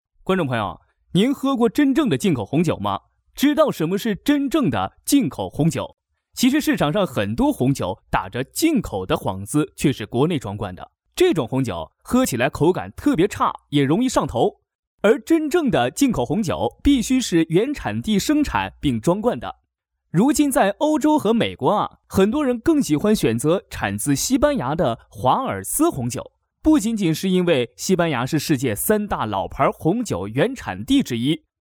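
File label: Mandarin Chinese, male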